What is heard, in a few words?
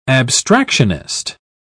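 "abstractionist", English, British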